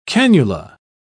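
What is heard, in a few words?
"cannula", British English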